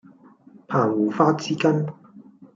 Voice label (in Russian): Голоса, Гонконгский